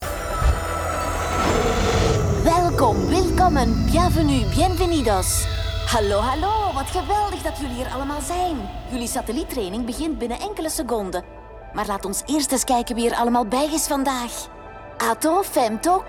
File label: flemish animation